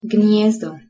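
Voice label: "gnijezdo", Bosnian-Croatian-Serbian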